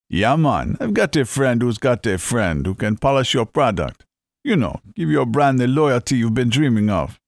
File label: Jamaican Accent; foreign languages